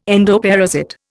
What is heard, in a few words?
"endoparasite", Indian